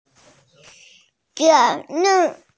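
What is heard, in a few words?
"zé rèn", Mandarin Chinese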